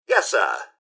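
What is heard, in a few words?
goodbye, mrhandy, robot; rivetcity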